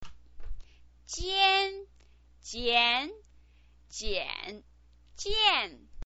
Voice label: "jian", Chinese pinyin voice